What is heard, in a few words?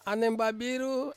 "aneng babiru", Ansus; potbelly